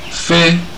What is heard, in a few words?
fly, Igbo